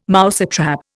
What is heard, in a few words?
"mousetrap", Indian